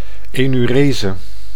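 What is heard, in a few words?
"enurese", Dutch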